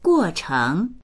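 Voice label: "guo4cheng2", Mandarin Chinese; guòchéng; 过程; 過程、プロセス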